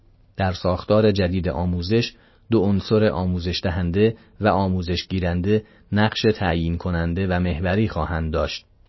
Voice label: male real